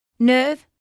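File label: "nerve", British English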